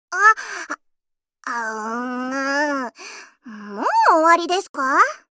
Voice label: Voice victory